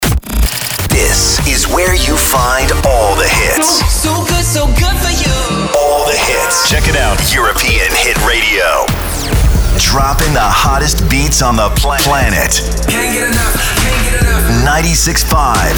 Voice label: rhythmic